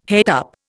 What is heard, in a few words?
"het up", Indian